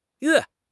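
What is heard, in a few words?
"yue4", Mandarin Chinese; HSK3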